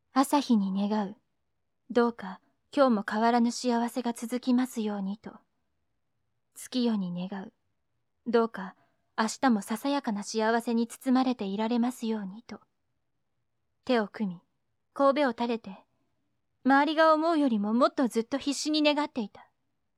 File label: girl; 違う文章で３パターン。モノローグ風。; 大人の女性